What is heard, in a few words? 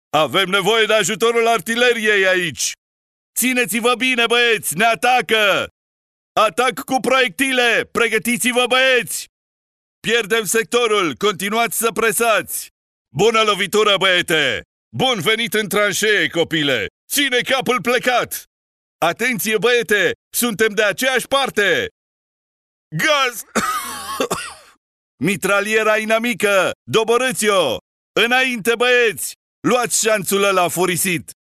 romanian video games; male; professional Romanian native voice talent